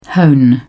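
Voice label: "hone", British English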